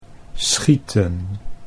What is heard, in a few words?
"schieten", Dutch